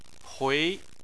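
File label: "hui", Chinese, second tone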